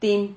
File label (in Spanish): "tim", Silabas